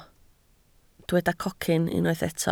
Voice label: "cocyn", Welsh